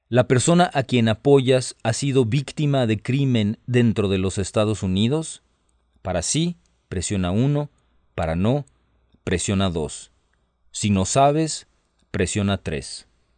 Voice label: Spanish, hotline